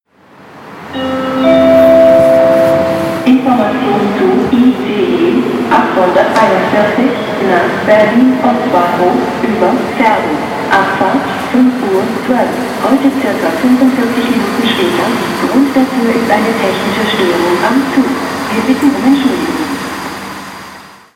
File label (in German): zugansage ice weniger baesse